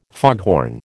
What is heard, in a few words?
"foghorn", American